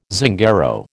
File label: "Zingaro", American